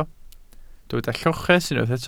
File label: "lloches", Welsh